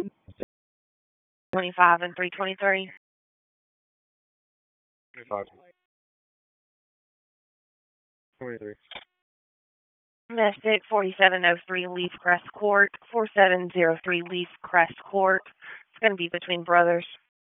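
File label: Raleigh Police Northeast District Dispatch, p25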